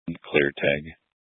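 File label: Incident Tactical 3, Scanner audio recording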